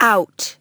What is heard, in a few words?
LoFi Words, LoFi Vocals